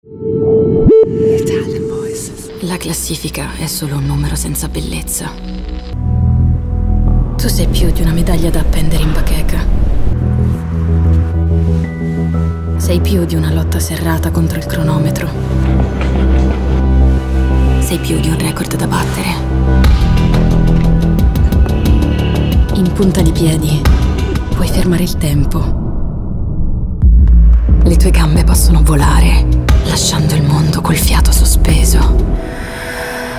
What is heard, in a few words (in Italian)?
Italian voices, Contemporary Cool, female voices